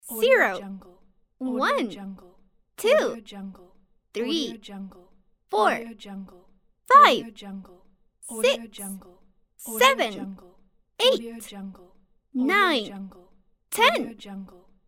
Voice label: girl counting numbers; medusamusiclab